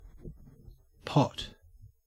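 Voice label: "pot", Australian English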